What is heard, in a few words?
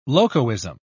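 "locoism", English, British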